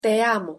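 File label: pronunciation "te amo", Spanish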